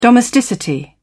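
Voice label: "domesticity", British English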